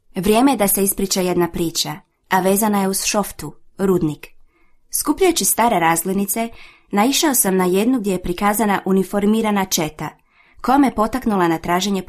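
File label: Croatian, Documentary; GoLocalise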